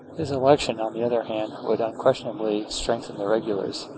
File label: speech est